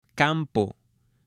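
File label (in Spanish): "campo", Spanish, male; LUGARES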